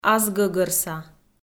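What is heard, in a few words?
"асгӧгӧрса", Komi-Zyrian, female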